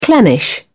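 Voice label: "clannish", British English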